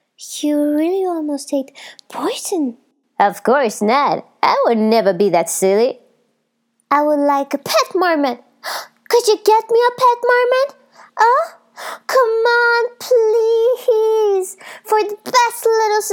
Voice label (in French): voix off anglais français; BURON GÉRANCE D'ARTISTES